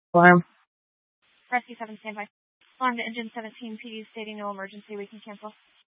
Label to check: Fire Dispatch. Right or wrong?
Right